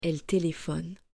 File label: "elle téléphone", French